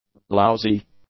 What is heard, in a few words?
"lousy", English; adjectives